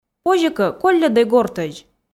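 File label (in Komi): позьӧ кӧ колльӧдӧй гортӧдз, Komi, female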